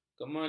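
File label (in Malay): "kemelut", Malay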